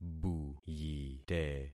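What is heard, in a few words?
"buyite", concatenated syllables; Affixing experiment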